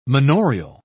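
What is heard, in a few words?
"manorial", English, British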